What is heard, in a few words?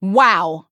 Female Human Wow; Young Cariel; Cariel Mission1Wow